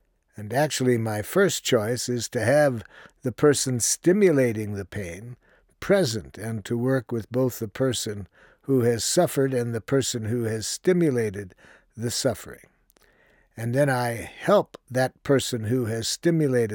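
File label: Role play demonstrating empathy; Marshall Rosenberg; Nonviolent Communication; The Nonviolent Communication Training Course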